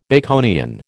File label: "Baconian", American